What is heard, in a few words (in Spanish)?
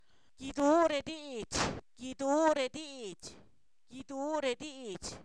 platicamos, Zapoteco, san miguel albarradas; verbos; INALI